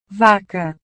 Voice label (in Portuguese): "vaca", portugues